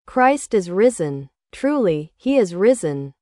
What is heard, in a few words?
Truly He Is Risen English; Christ is Risen! Truly, He is Risen!; THE PASCHAL TROPARION; Great and Holy Pascha